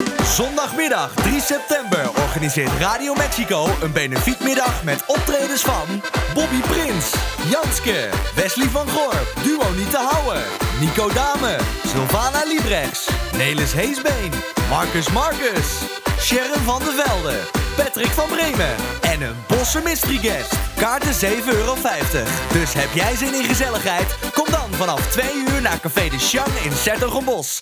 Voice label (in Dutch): Commercial; Benefiet Mexico